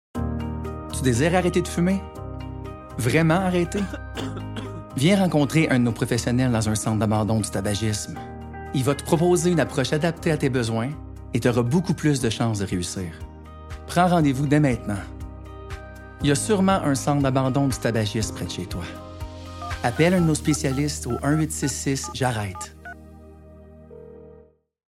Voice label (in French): pub tabagisme